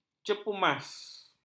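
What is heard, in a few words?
"cepumas", Malay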